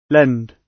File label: "lend", gb